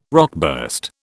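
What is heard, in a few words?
"rockburst", British English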